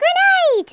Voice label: "grenade", English